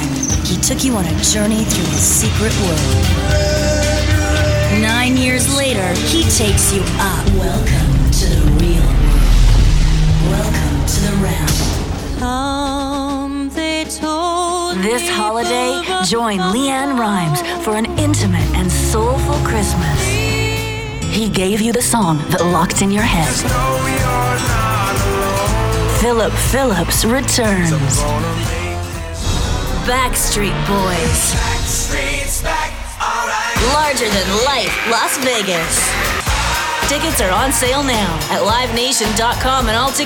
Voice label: Tour Promo